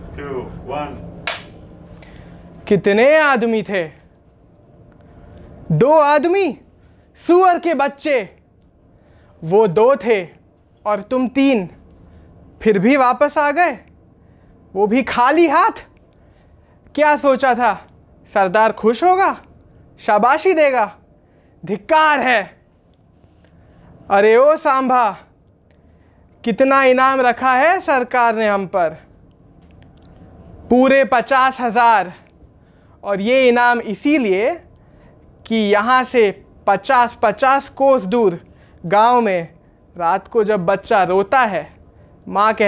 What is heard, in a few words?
bollywood dialogue